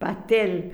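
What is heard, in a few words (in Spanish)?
"pastel", Argentina, La Plata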